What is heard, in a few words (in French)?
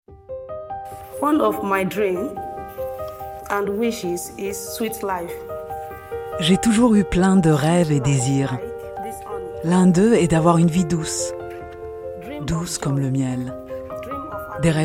Francais documentaire